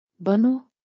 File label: Bannu North Pashto